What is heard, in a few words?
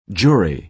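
"jury", American English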